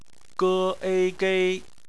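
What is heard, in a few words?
"gei" bopomofo, Chinese; phonetics; bpmf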